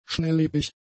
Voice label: "schnellebig", German; adjectives